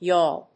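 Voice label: "yawl", English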